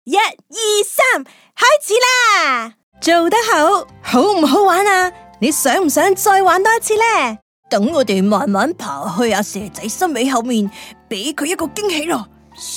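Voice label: Canto toys, Cantonese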